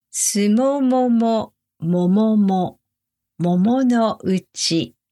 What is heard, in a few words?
tongue twister sumomo slow